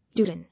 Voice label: "Urine", English